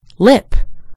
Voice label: "lip", en GB; gcompris

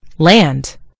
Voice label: "land", en GB; gcompris

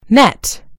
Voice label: "net", en US; gcompris

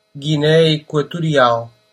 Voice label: "equatorial guinea", pt; gcompris; geography

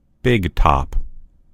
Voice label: "big top", en US; gcompris

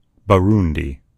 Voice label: "burundi", en_US; gcompris; geography

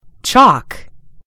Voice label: "chalk", en GB; gcompris

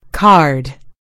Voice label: "card", en GB; gcompris

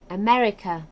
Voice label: "america", en GB; gcompris

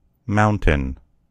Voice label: "mountain", en US; gcompris

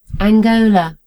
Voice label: "angola", en GB; gcompris

